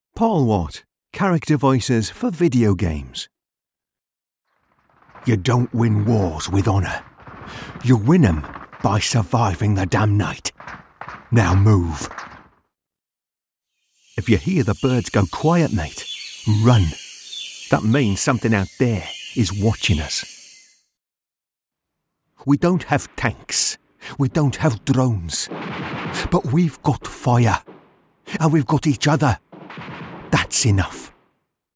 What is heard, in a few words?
teesside voiceover videogame